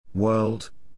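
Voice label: "world", English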